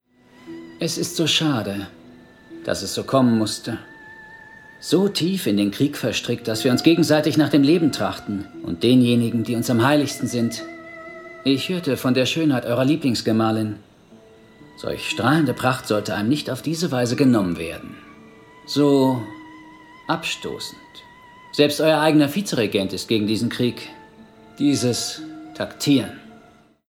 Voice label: male german acting; ideasonora